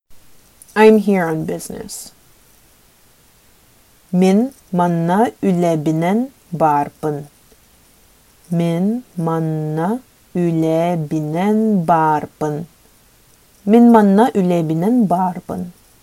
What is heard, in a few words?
Sakha I am here on business